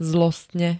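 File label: "zlostne", Slovak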